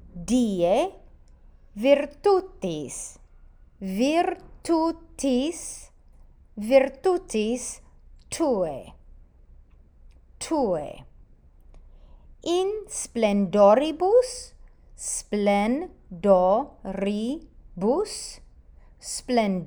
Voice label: Tecum Principium Pronunciation Guide